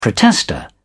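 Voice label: "protester", British English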